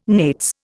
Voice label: "nates", Indian